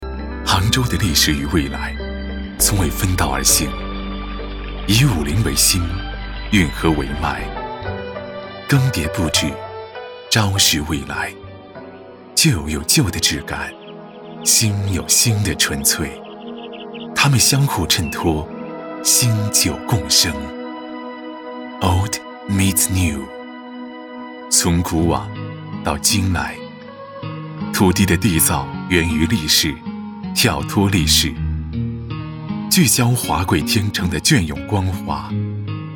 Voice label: 国语男 地产; 杭州武林

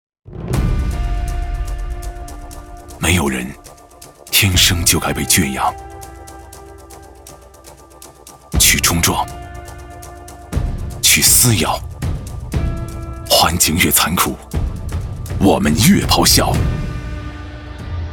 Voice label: 国语男 广告 汽车类 越野汽车广告

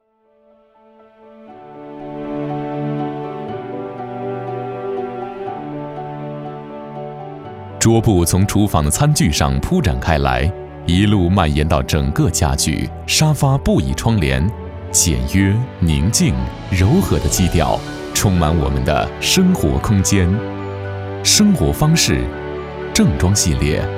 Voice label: 国语男, 服装介绍类; 九牧王

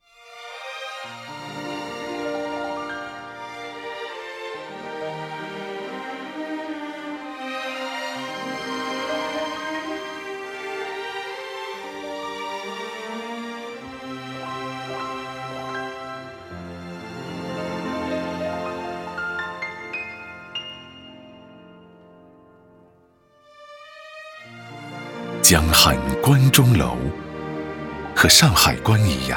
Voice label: 国语男 专题片 政府 形象片; 武汉形象片

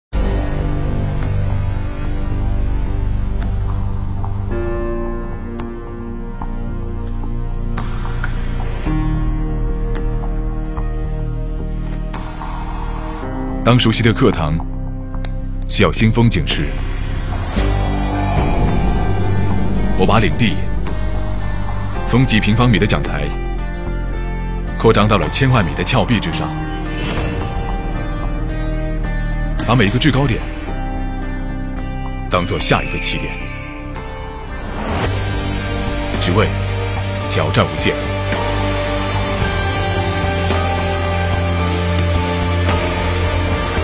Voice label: 国语男 广告 汽车类; 英菲尼迪潜能觉醒挑战赛

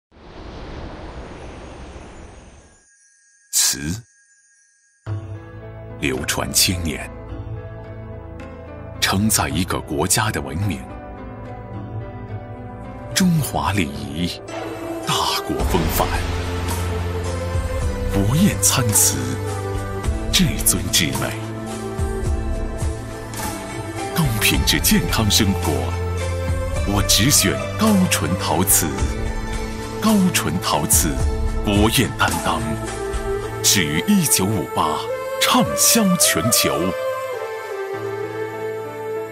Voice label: 国语男 广告 产品类 陶瓷; 高淳陶瓷国宴篇

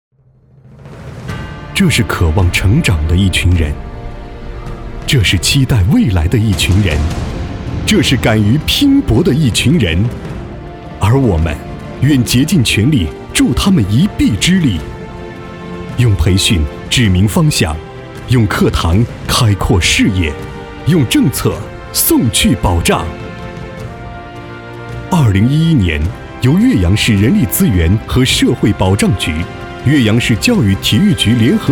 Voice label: 国语男 宣传 大学样板

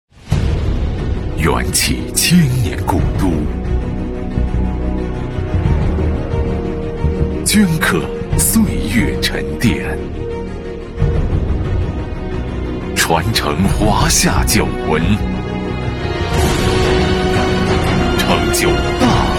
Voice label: 国语男 广告 酒类; 贵州茅台酒燕京八景

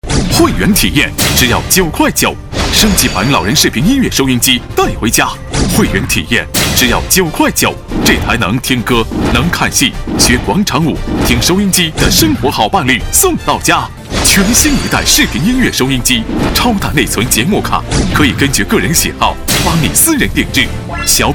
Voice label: 国语男 电购; 电购大力丸; 多功能视频播放器

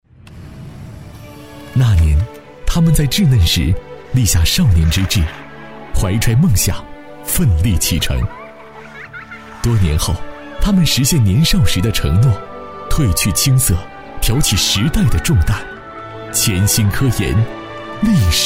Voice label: 国语男-公益广告----清新动感; 共青团100周年

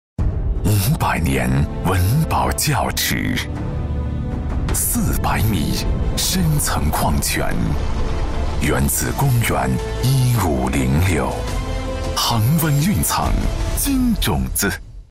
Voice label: 国语男 广告 酒类; 金种子恒温蕴藏

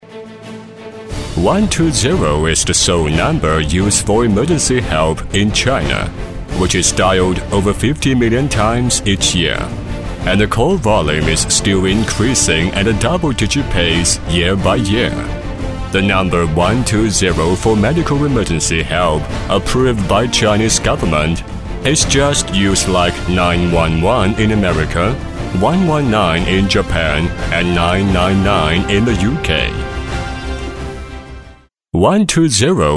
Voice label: 英语男 火警介绍 大气激情

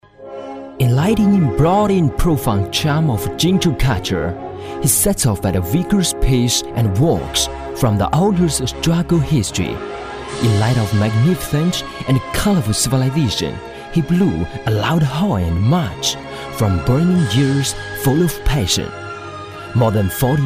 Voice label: 英语男-专题片; 湖北三江航天红阳机电有限公司

